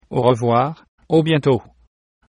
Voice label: goodbye, fr